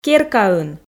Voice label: "керкаын", Komi

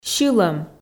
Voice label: "сьылӧм", Komi

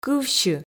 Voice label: "кывсьы", Komi

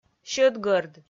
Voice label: "сьӧдгӧрд", Komi

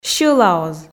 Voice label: "сьӧлаоз", Komi

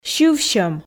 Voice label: "сьывсьӧм", Komi

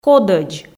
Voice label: "кодӧдз", Komi

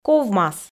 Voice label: "ковмас", Komi

